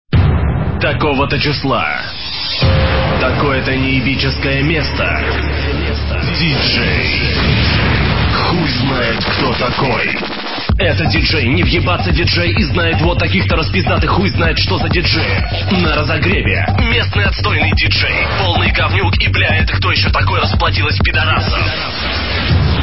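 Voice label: Reklama dj, advert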